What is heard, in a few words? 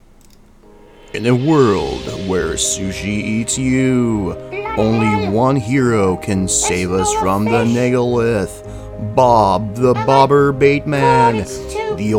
voiceover